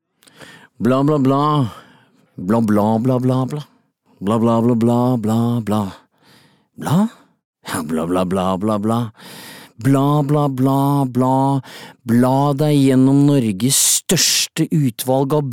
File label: radiospot; ARK